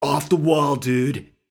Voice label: Off The Wall Dude; netent; wildwater